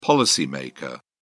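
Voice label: "policy maker", British English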